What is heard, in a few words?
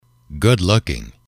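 good looking, English, male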